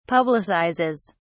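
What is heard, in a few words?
"publicizes", British English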